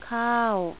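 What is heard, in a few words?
"rice", male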